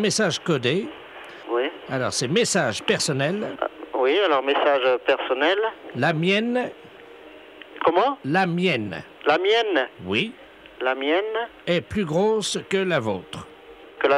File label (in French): Appel en Gare de Monaco